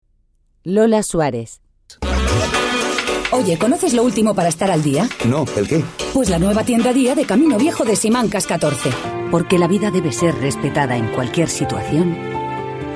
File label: languages spanish